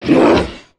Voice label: BOSS Hurt